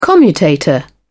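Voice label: "commutator", British English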